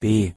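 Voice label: "b" German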